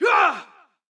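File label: soldier voice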